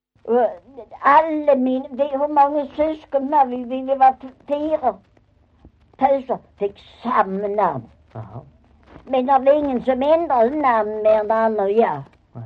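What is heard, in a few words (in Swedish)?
Maglarp Skåne, Svenska sagor dialekter; Folkminnen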